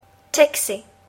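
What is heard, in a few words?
"taxi", English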